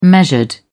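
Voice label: "measured", British English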